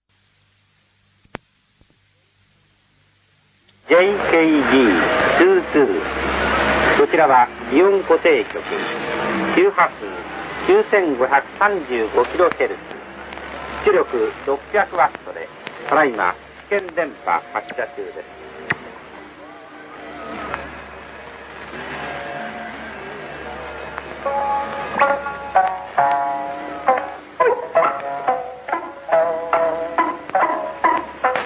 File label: Hiroshima Gion 9535 kHz; JKG22; 600w